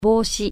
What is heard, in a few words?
hat, japanese